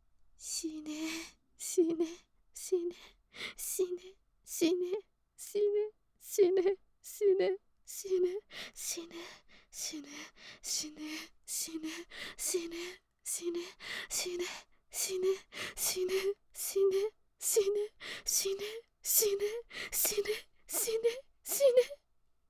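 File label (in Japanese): "しね", Japanese; 死ね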